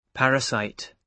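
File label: "parasite", British English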